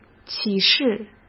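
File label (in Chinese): "启示", Mandarin Chinese; hsk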